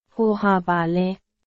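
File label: Burmese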